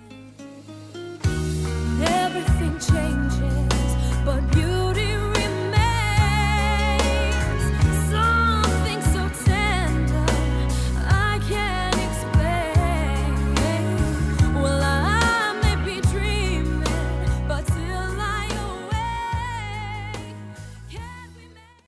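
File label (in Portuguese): BOA NOITE ROMANTICA, Feminino; Telemensagens; Românticas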